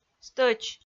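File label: "стӧч", Komi-Zyrian, female; audio dict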